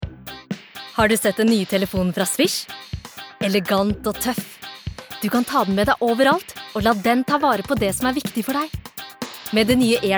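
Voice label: Norwegian fresh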